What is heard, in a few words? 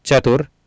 "chatur", Sanskrit